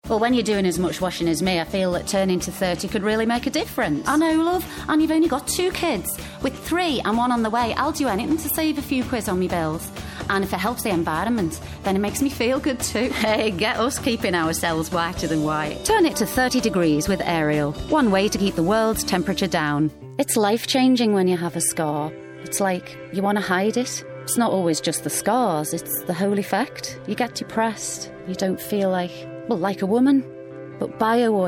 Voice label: Northern